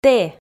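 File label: "тэ", Komi, female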